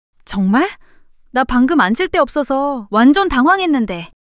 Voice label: fluster